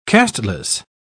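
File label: "casteless", British English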